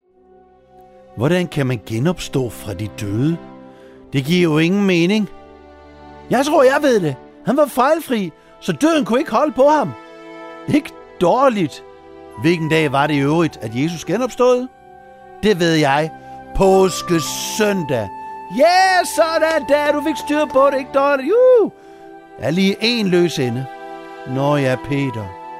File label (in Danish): drama med speak; 13 Bekendelsen